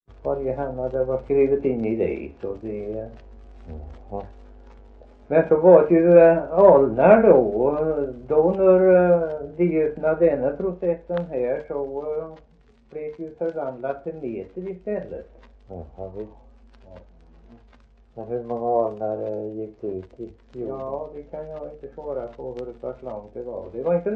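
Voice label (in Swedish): Värö, Swedish dialect; svenska sagor; Folkminnen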